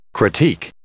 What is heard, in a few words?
"critique", English